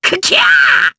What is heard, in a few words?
Attack, jp; Lakrak; kings raid